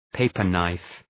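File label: "paper knife", English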